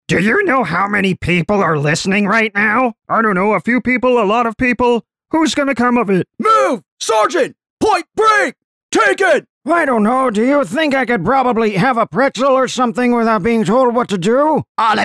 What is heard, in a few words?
Character Voices